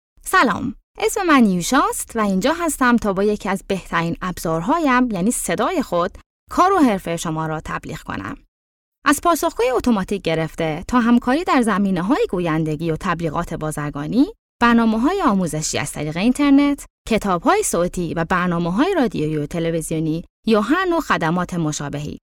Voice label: Farsi

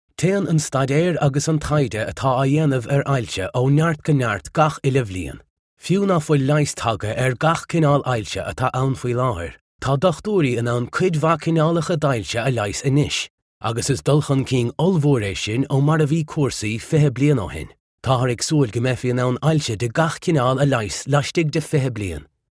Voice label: Gaelic

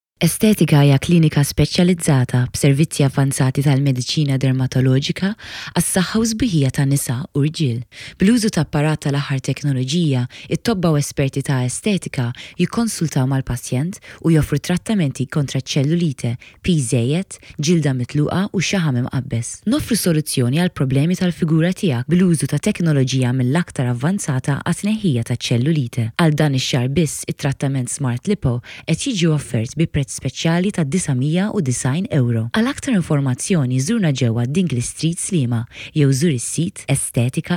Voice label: MALTESE

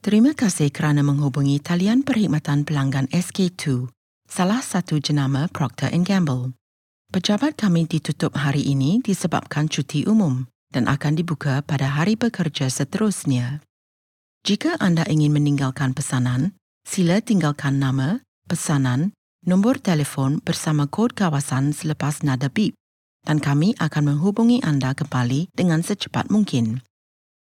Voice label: IVR; ProctorGamble

